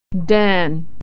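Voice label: "Dan", American English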